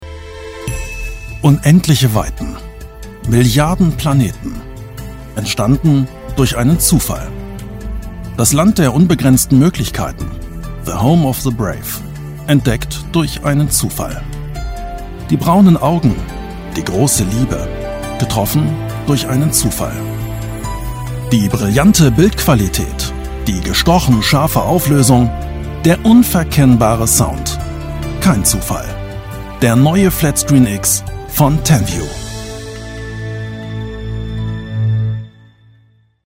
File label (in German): Werbung